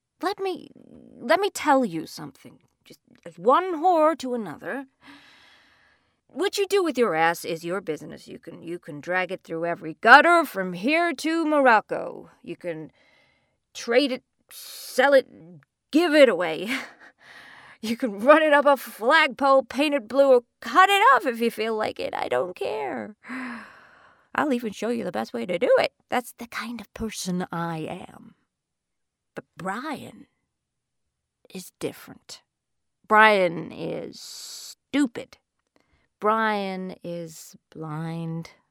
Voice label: General USA Accent